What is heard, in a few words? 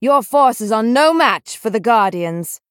Female Night Elf Greetings; Bacon Shop